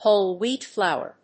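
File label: "Whole wheat flour", English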